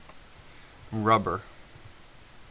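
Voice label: "rubber" English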